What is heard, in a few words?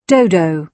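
"dodo", British English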